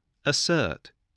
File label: "assert", English